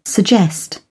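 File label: "suggest", British English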